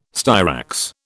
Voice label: "styrax", British